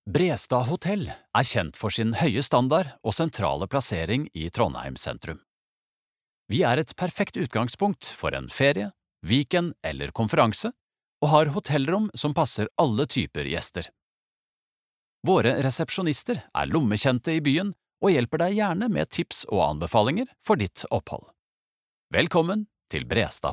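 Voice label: Norwegian informative, norsk mann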